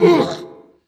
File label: hurt